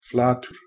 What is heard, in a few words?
"flatr", Old Norse